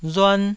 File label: "ruan1", Taiwanese